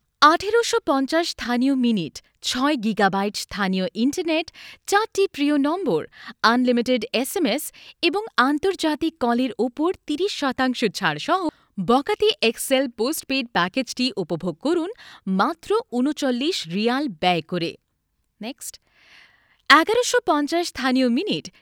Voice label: IVR On Hold Voicemail Greetings

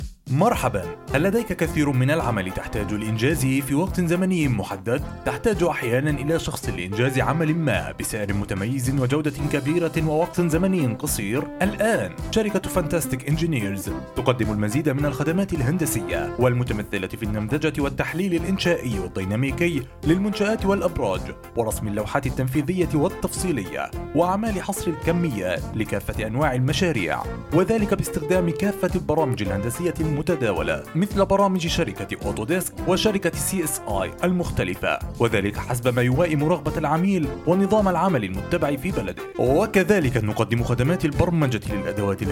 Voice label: Arabic Radio TV Commercial Voice

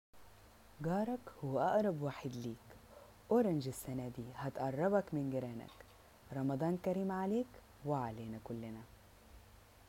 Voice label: Arabic Corporate Narrations

